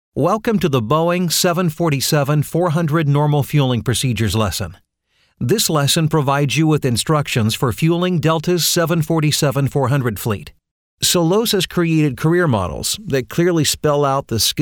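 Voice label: eLearning Male, Narration, eLearning, Genuine, Friendly, Sincere, Believable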